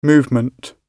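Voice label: "movement", British English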